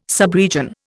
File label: "subregion", Indian